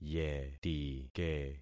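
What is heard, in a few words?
"yetike", concatenated syllables; Affixing experiment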